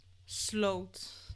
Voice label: "sloot", Dutch; klankstabiliteit

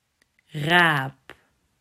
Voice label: "Raap", Dutch

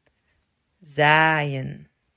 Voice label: "Zaaien", Dutch

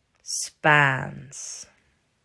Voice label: "Spaans", Dutch

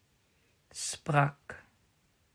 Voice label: "Sprak", Dutch

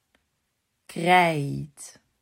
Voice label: "Krijt", Dutch

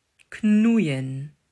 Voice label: "Knoeien", Dutch

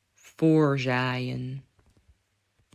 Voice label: "Voorzaaien", Dutch

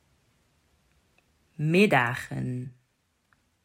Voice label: "Middagen", Dutch

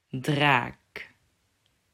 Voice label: "Draak", Dutch

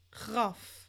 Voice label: "graf", Dutch; klankstabiliteit

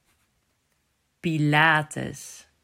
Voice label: "Pilates", Dutch

